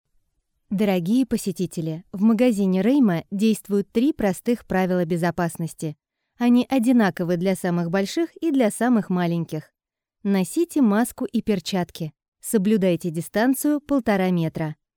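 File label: Russian Russia female narrative; russian voice over talent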